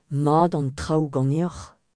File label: how are you, breton; Mat an traoù ganeoc’h?